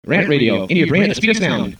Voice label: Promo; Speed Of Sound; RantRadio; RantMedia; Station Promos-Listener Submitted

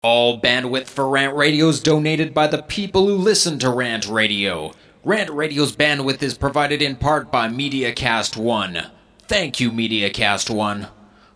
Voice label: Promo talk psa; RantRadio; Station Promos-In House

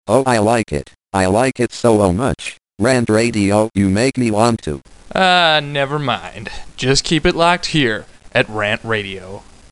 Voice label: Promo; RantRadio; Station Promos-In House

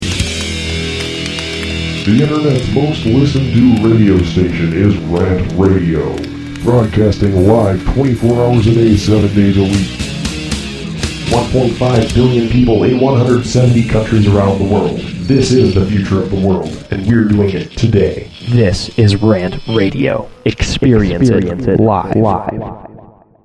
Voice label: Promo; RantRadio; RantMedia; Station Promos-Listener Submitted

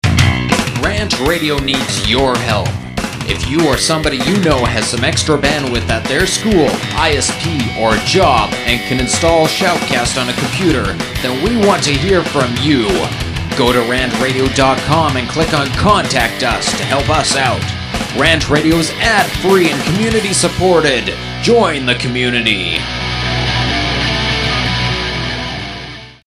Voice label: Promo punk psa

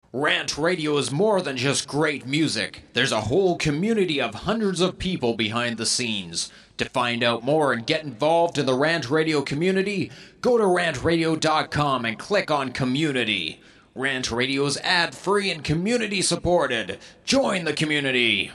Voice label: Promo solo psa; RantRadio; Station Promos-In House

